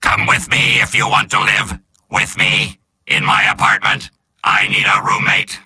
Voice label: soldier robot; mvm